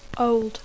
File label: "old", English